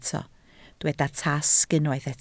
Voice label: "tasg", Welsh